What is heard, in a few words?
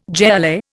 "jai alai", Indian